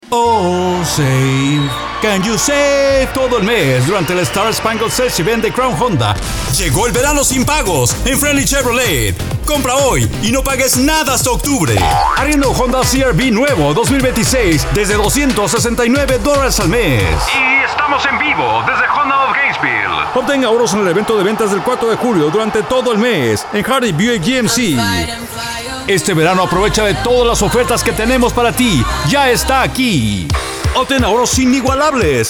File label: Spanish